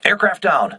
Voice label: voice message aircraft destroyed, crew ships english